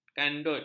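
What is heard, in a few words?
"kandut", Malay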